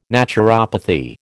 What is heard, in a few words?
"naturopathy", American